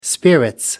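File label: "spirits", British English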